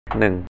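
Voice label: "nɯ̀ŋ" 'one', Thai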